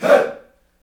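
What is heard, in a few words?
voice "hey", utterances, Africa; Roland LCDP11 Africa VOL-1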